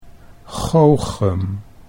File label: "goochem", Dutch